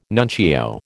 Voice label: "nuncio", American

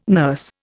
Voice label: "nurse", Indian

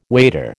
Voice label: "wader", American

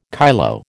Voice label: "kyloe", American

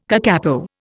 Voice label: "kakapo", Indian

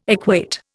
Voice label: "equate", Indian

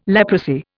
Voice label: "leprosy", Indian

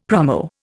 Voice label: "promo", Indian; Dictionary

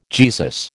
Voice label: "Jesus", American

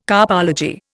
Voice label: "carpology", Indian

